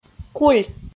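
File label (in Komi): "куль", Komi-Zyrian, female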